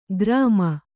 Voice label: "ಗ್ರಾಮ", Kannada